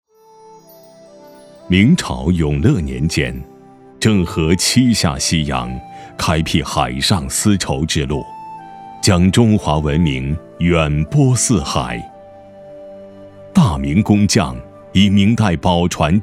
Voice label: 男 纪录 历史感 讲述; 舞蹈介绍

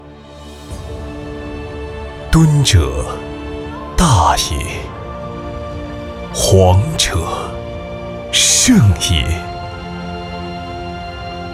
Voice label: 男, 宣传片 大气质感

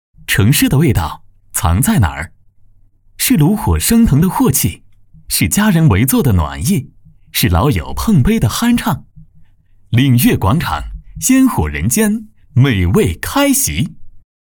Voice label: 男 广告

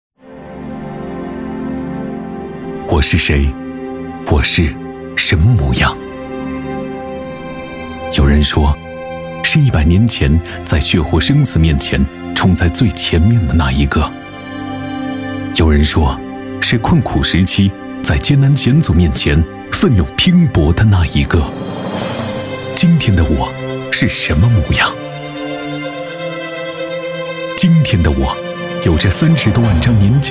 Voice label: 男, 专题; 共青团

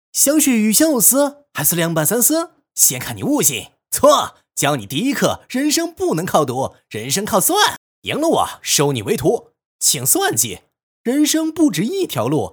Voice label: 男 广告; 想学鱼香

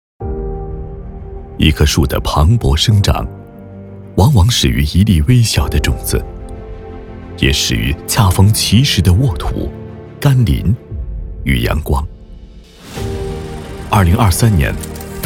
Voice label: 男 专题; 微小种子

